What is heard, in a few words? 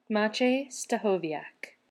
en-US pronunciation